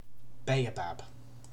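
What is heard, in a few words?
"baobab", British English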